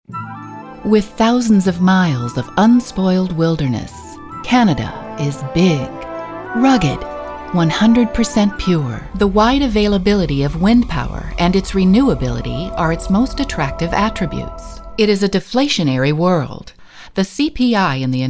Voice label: Narration